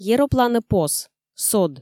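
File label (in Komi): "еропланӧ пос, сод", Komi, female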